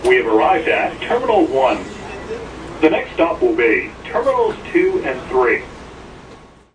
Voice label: subway we have arrived at terminal 1 2 3, Transit Terminal Announcements; VOCALS and SPOKEN WORD